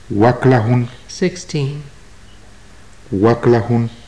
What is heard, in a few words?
numbers, maya